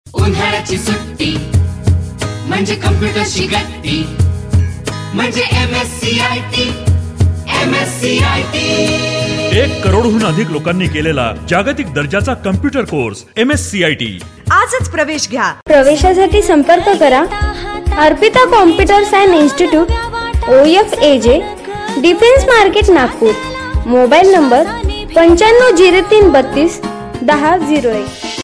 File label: Computer voice ad, Riksha Advertising